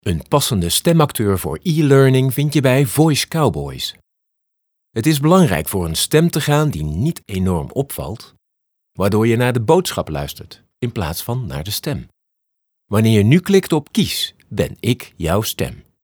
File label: ELEARNING